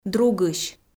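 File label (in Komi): "другысь", Komi, female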